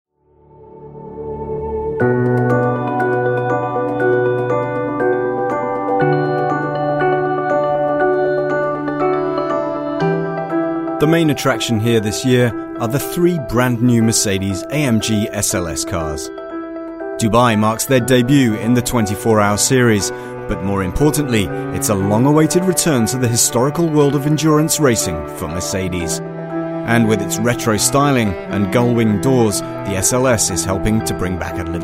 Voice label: UK English Documentary, English UK Male; Mercedes Benz